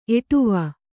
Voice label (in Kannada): "ಎಡವು", kannada